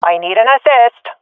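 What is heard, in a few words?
request backup, swat female; vo; Counter Strike Model Pack; CS:GO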